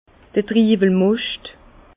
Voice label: "triwelmoscht", Bas Rhin

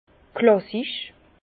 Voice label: "klassisch", Bas Rhin; vin; classique

